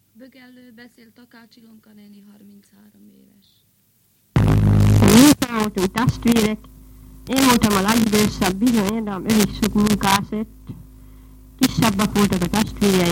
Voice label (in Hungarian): nyelvjaras gyujtesek